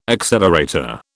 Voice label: "accelerator", British